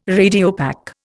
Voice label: "Radiopaque", Indian